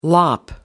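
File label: "lop", British English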